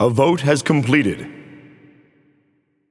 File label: Announcer Voting Completed; Paladins